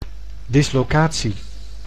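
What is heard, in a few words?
"dislocatie", Dutch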